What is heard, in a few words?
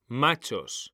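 "machos", Spanish, male; mekanta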